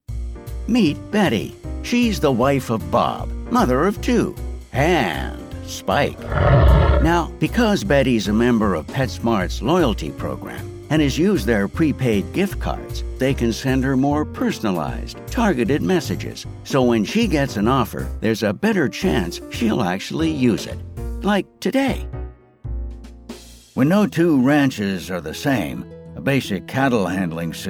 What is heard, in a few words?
Explainers